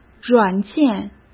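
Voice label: "软件", Mandarin Chinese; hsk; chinese flashcards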